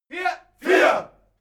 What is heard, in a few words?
military shout german "four"